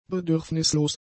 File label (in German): "bedürfnislos", German; adjectives